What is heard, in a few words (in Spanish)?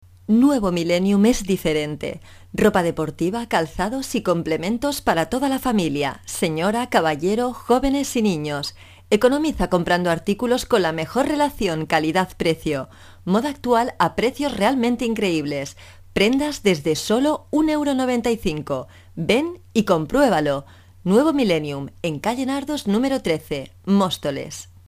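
locutora espanola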